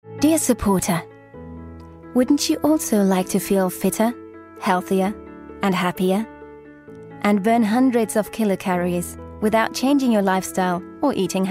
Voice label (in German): eng werbung